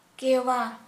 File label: Santali chin